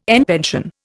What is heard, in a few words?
"en pension", Indian